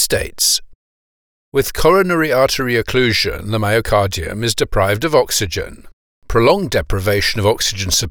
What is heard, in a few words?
Medical Narration Myocardial Infarction